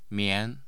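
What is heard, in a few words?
"mian2", Mandarin Chinese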